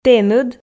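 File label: "тэныд", Komi, female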